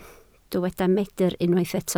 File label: "meidr", welsh